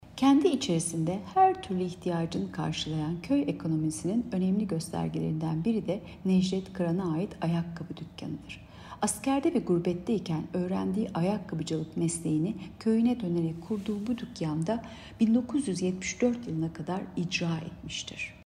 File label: turkce